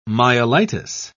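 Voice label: "myelitis", British English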